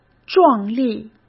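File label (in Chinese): "壮丽", Mandarin; chinese flashcards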